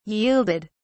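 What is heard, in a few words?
"yielded", British English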